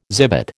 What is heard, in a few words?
"zibet", American